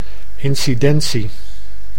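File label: "incidentie", Dutch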